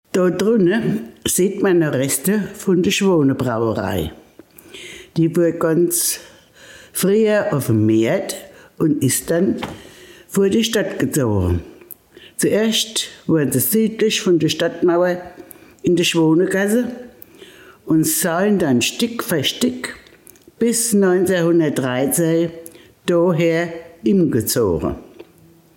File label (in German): Mundart; Schwanenbrauerei